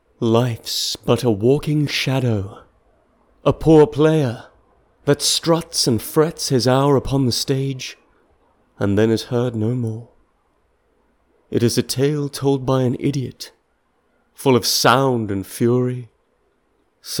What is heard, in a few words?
British RP